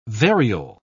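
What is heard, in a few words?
"variole", British English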